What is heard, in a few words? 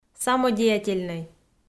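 "самодеятельнӧй", Komi, female; kpv; audio dict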